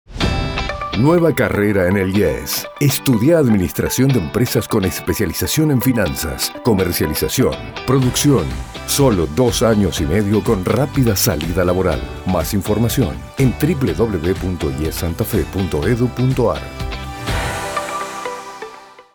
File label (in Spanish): Spot Radio; Admin Empresas